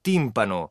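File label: "timpano", Italian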